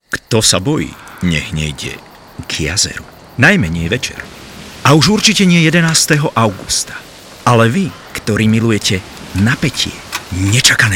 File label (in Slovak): Reklama Horor house